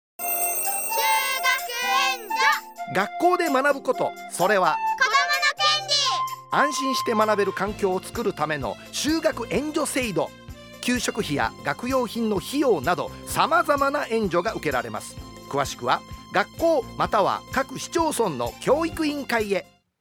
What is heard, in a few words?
radio